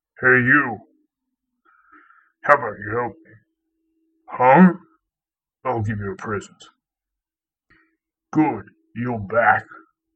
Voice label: misc orc voices